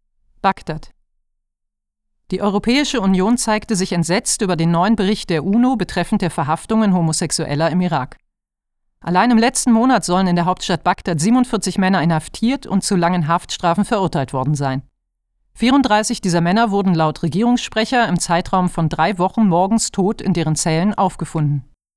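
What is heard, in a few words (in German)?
Nachrichten, Sprecherin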